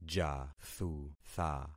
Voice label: "jathutha", concat syllables; Affixing experiment